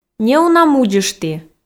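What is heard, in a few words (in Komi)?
неуна мудзышті, Komi, female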